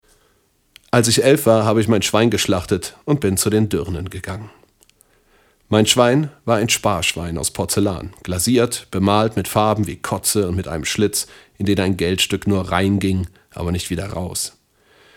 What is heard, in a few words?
VO Demo Literatur